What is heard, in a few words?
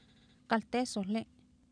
kaltEesohlI, Oapan minimal pairs female; Oapan minimal pairs 1998